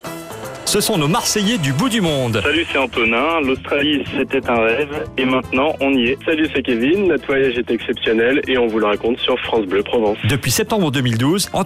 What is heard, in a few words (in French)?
bande annonce